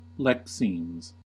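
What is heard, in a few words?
"lexemes", American English; pronunciations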